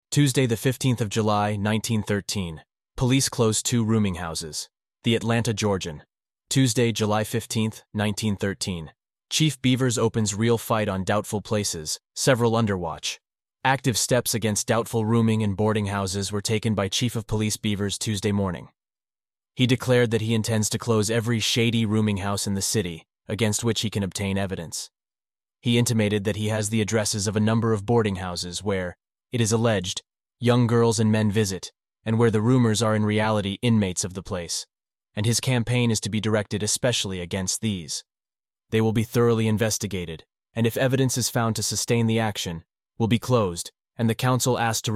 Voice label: Reading; Tuesday, 15th July 1913 Police Close 2 Rooming Houses; The Atlanta Georgian; Leo Frank Papers